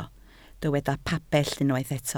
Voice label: "pabell", Welsh